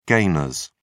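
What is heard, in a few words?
"gainers", British English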